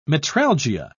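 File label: "metralgia", English, British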